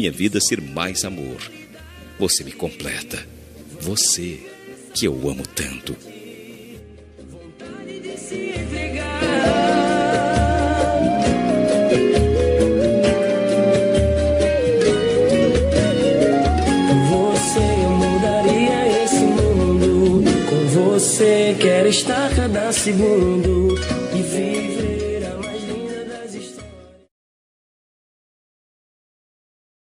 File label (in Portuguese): aniver esposa, Telemensagem de Aniversário de Esposa – Voz Masculina